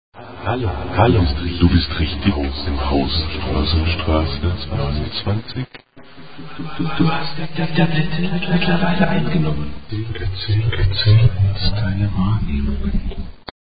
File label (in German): anrufbeantworter